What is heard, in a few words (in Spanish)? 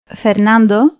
cons f cuba; spansk; fonetica